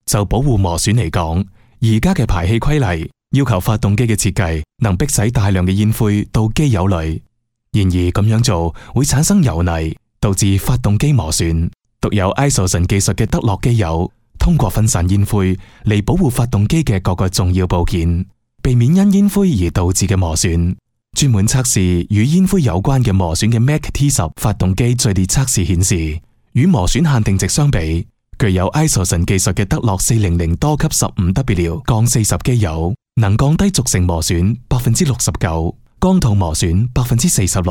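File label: chinese, male, informative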